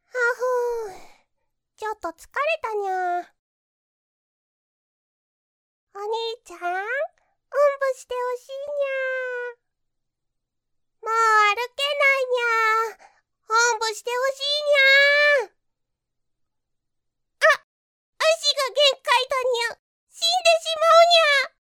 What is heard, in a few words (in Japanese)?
妹